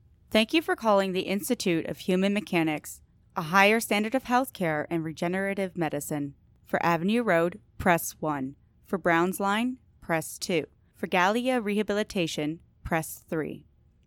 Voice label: ivr